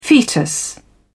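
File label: "fetus", English, British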